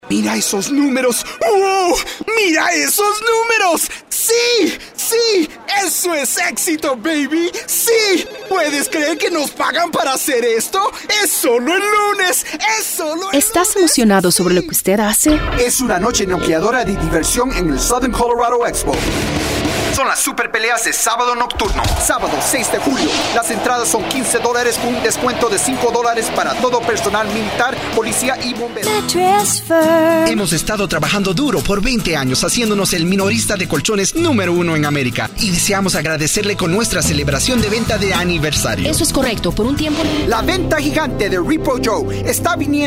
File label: Commercial Spanish